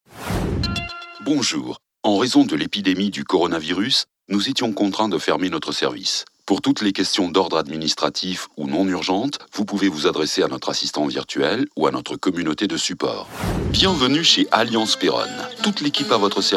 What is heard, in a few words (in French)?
telephonie, voix grave